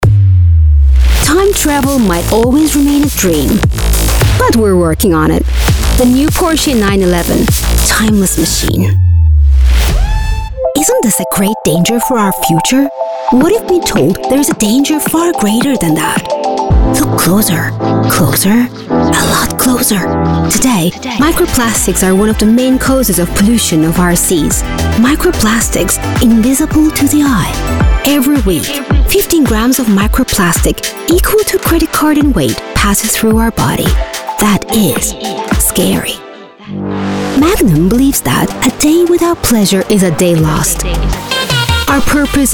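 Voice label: English, seslendirme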